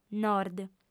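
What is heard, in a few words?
"nord" Sardinian, female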